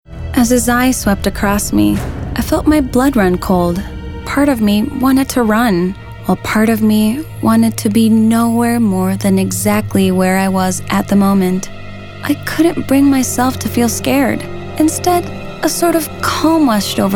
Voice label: Narration